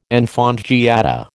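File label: "enfant gâté", American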